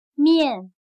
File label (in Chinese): "面", chino